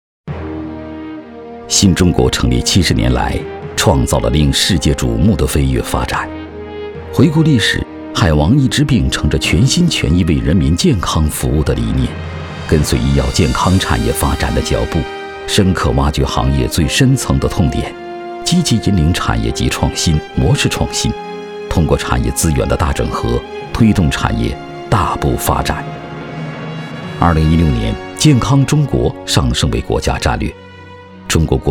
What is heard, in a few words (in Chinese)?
男 纪录片 讲述感; 海王健康